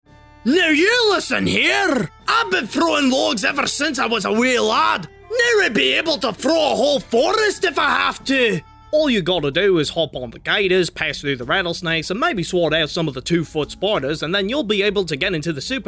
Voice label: Accents; professional male actor from Montreal, Canada; English

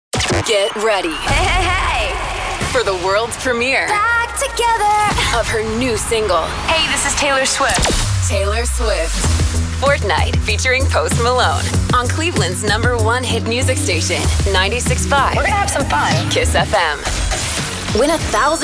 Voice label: Imaging

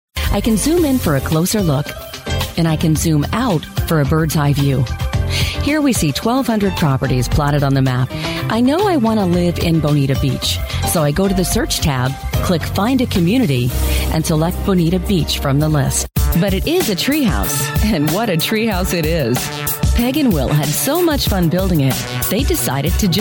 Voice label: Explainer

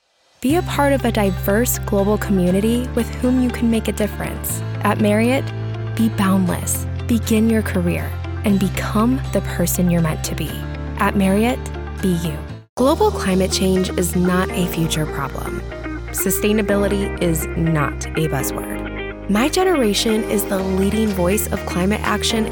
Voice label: Corporate Video Narration

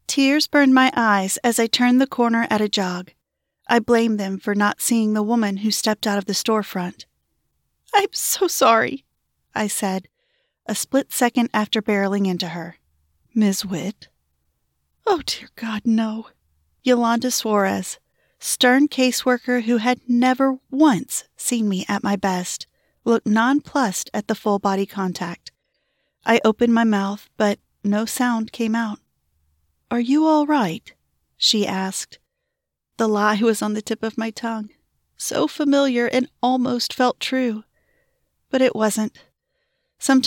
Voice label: Romance Girl Talk, FF, 1POV

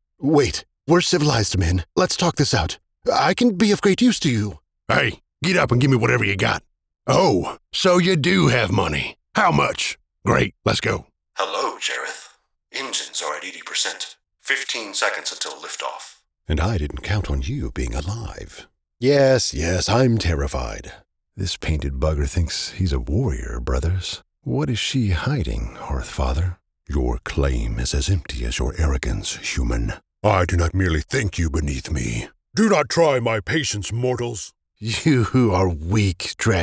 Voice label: Animation

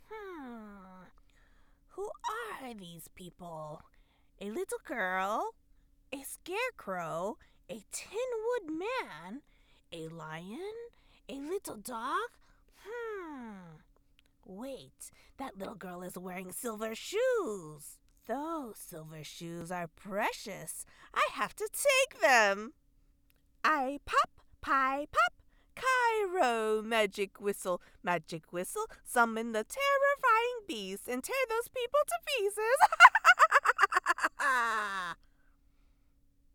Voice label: Wicked Witch

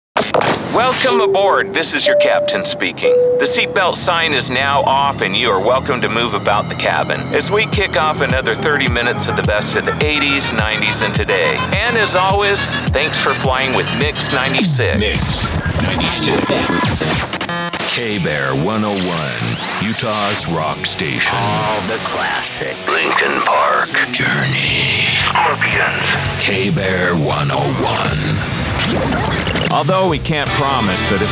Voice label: Radio Imaging

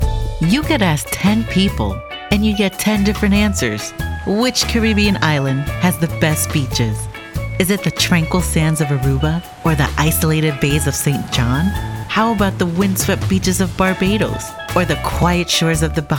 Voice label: Tourism Narration

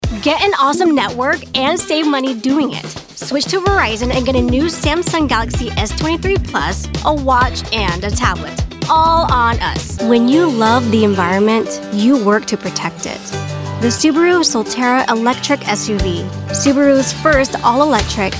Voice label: COMMERCIAL

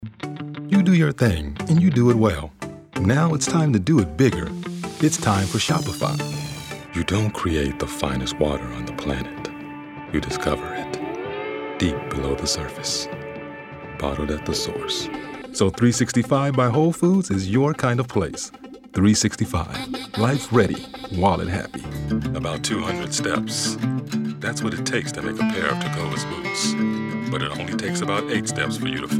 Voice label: Commercial

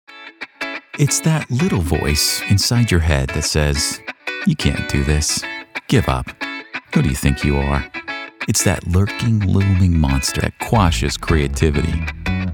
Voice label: Dremel Spot

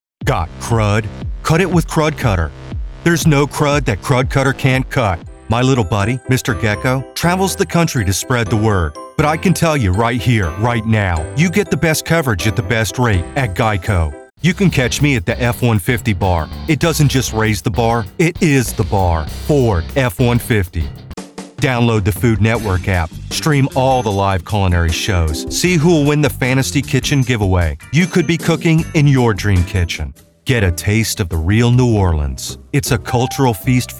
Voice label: Commercial; American voice actor

